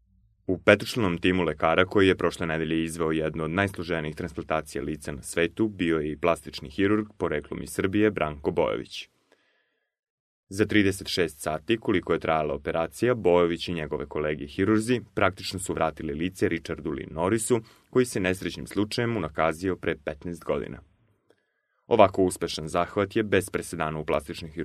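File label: Serbian male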